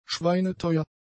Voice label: "schweineteuer", German; adjectives

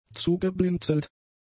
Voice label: "zugeblinzelt", German; adjectives

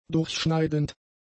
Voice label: "durchschneidend", german; adjectives

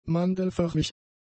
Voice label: "mandelförmig", German; adjectives

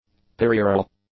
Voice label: "perioral", english; adjectives

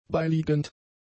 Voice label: "beiliegend", German; adjectives

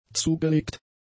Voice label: "zugelegt", German; adjectives

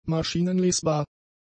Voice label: "maschinenlesbar", German; adjectives

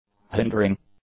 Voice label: "hindering", English; adjectives

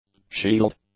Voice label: "shield", English; nouns

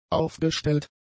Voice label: "aufgestellt", German; adjectives

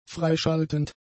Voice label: "freischaltend", German; adjectives

